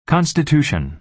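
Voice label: "constitution", American English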